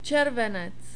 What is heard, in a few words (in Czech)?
"cervenec", Czech